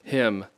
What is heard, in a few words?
"hymn", English; Speech Pro